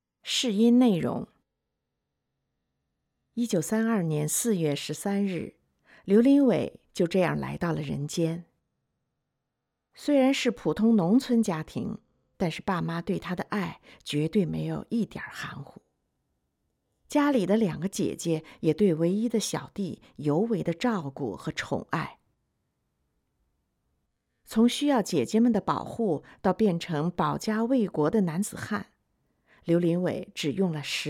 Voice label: 试音 老兵 纪录片

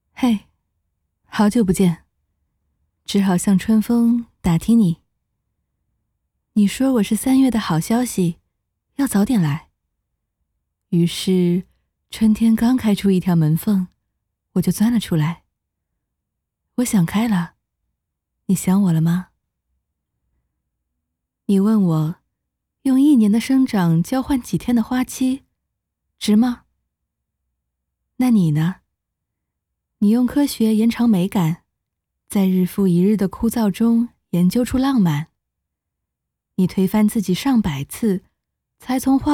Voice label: 樱花节宣传片; 武汉樱花节宣传片

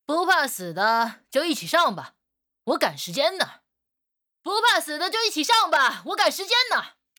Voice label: 不羁少年音

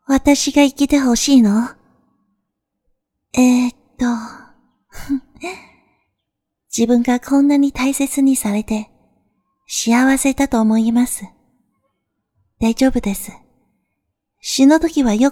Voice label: 日配女高中生试音样本

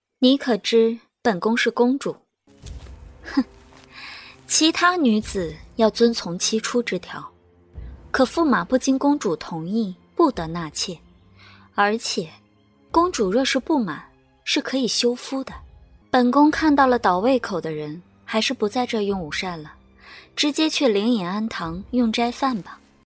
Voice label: 古言公主

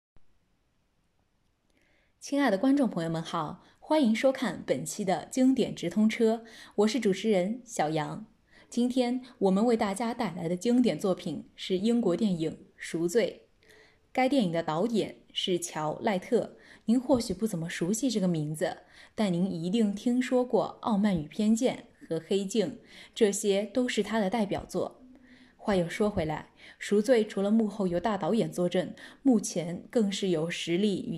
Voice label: 主持词

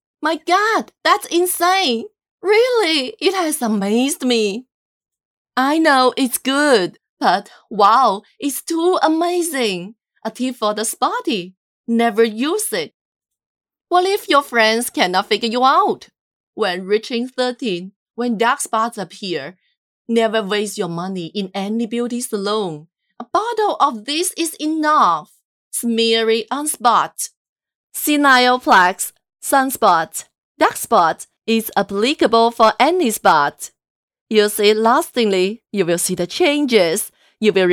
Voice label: 护肤品广告 英语

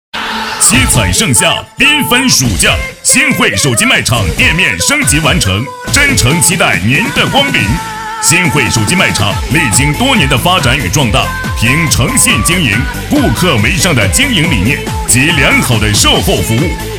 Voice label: 手机通讯广告配音

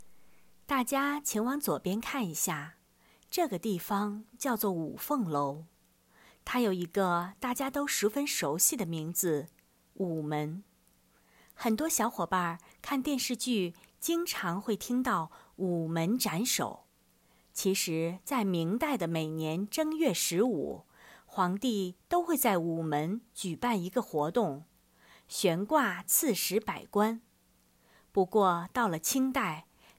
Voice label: 导游词试音; 小巧玲珑

